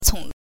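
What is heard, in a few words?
"cong" neutral tone, Mandarin Chinese pinyin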